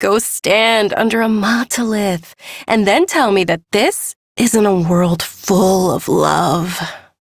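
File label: Ambient Female Brisk, Dialog; Duviri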